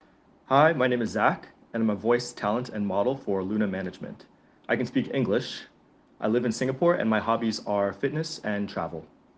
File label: English